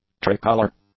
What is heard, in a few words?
"tricolor", English; adjectives